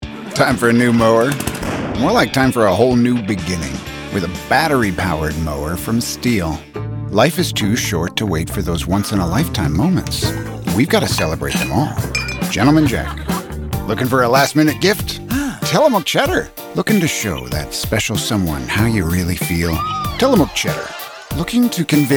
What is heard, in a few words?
Commercial Demo